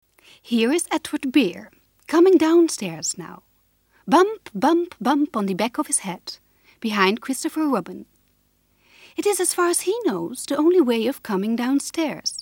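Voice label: Pooh Storytelling English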